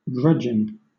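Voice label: "grudging" British English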